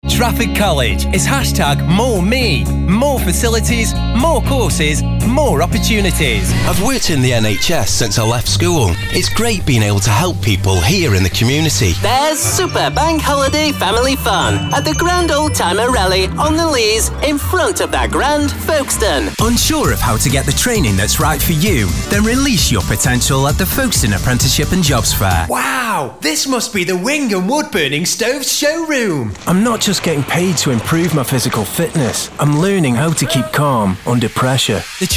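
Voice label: Commercial, British Male Voice Over; Commercial Showreel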